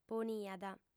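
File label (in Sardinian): "poniat", female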